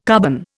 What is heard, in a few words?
"carbon", Indian